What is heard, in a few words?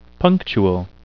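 "punctual", English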